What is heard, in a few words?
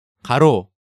"Garo", Korean